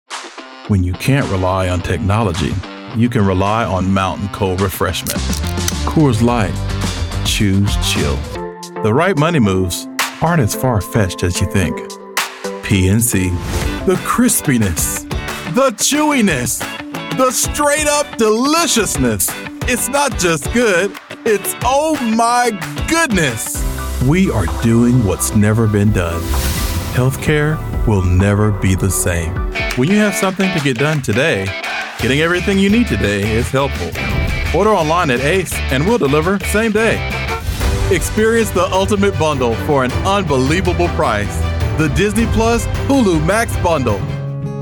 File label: Commercial